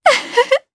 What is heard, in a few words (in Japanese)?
Happy, jp; kingsraid